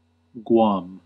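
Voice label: "Guam", English, US; Guam (/ˈɡwɑːm; Chamorro: Guåhan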